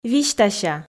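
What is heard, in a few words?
"висьтася", Komi, female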